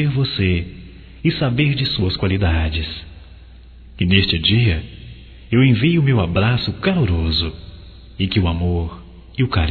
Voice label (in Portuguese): Agradecendo Presente Masculino